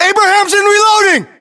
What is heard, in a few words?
inform reloading, battlechatter us